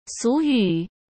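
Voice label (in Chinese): "俗语", Mandarin Chinese; chinese flashcards; hsk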